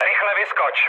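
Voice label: bailout, Czech, mood med; War Thunder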